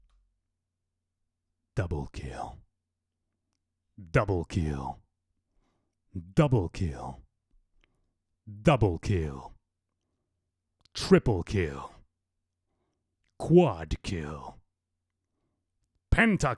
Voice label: Announcer